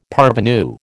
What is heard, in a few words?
"parvenu", American